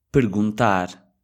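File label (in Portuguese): "perguntar", português europeu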